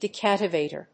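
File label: "DEACTIVATOR", English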